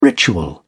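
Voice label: "ritual", British English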